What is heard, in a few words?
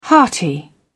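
"hearty", British English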